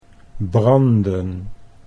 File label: "branden", Dutch